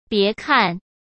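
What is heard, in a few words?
"别看", Mandarin Chinese; chinese flashcards; hsk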